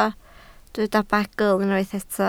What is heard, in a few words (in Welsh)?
"bagl" Welsh